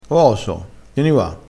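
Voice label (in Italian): "coso", dialettale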